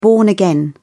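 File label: "born-again", British English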